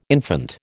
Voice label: "infant", American